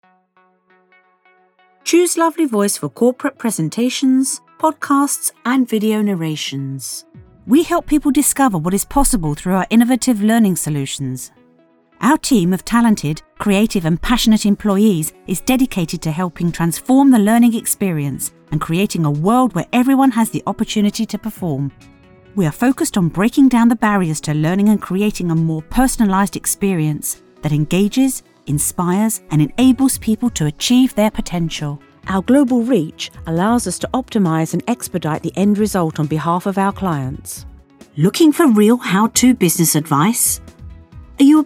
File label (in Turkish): Ingiliz Ingilizcesi Kadin; seslendirme